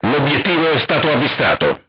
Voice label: target lock, crew tanks italian; War Thunder